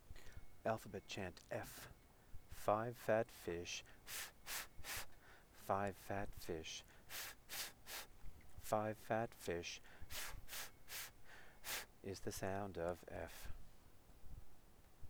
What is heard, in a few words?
alphabet chant 'f' fast